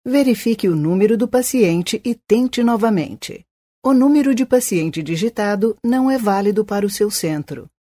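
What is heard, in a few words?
Brazilian Portuguese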